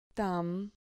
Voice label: "tam", Spanish